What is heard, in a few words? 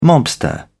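"mobster", British English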